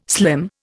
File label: "slim", Indian